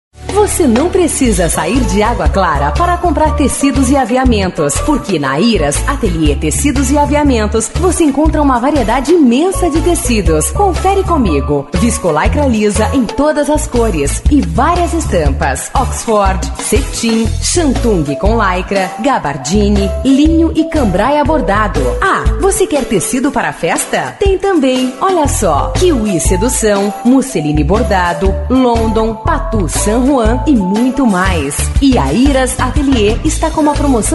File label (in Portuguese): locutora, vozes feminina